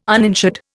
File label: "uninsured", Indian